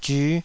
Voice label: "zyu5", taiwanese